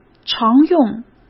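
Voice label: "常用", Mandarin Chinese; chinese-flashcards; hsk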